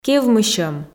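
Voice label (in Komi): "кевмысьӧм", Komi, female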